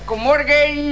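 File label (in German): "moin", German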